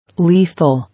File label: "Lethal", English